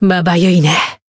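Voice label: healed, Japanese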